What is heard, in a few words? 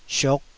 "siuk5", Taiwanese syllable